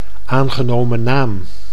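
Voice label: "aangenomen naam", Dutch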